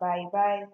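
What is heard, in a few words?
"buybuy", Nigerian Pidgin, female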